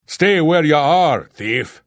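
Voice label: Thief, voice; Morrowind GOTY GOG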